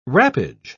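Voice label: "wrappage", English, British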